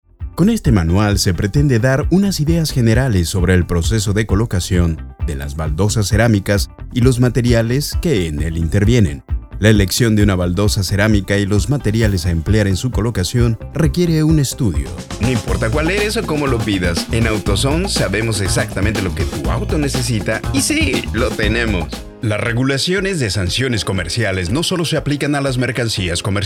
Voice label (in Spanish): Spanish Male